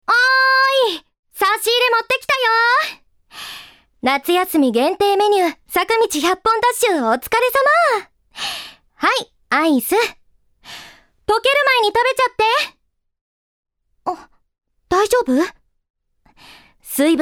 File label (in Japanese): アニメ